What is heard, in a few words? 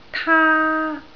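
"taa1", Cantonese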